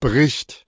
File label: "bright", Middle English